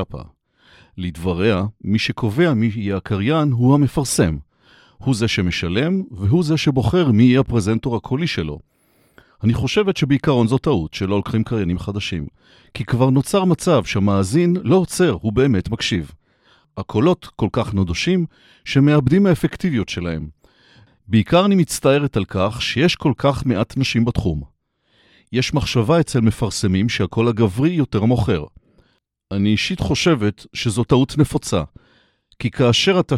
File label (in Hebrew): קריינות כתבה